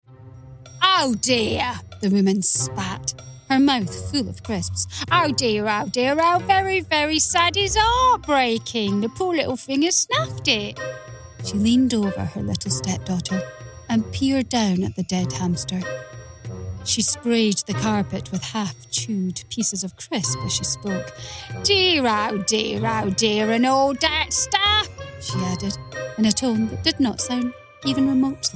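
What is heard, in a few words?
Audiobook Children's Excerpt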